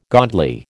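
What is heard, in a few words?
"godly", American English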